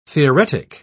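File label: "theoretic", British English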